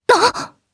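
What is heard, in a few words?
voice Sur1, voices heroes, Japanese; Lewsia; kings raid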